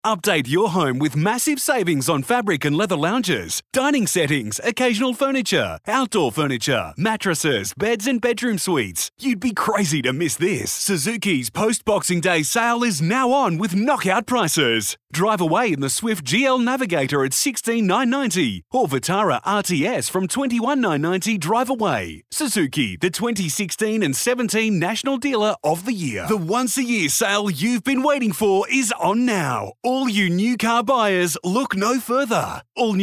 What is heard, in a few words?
Hard Sell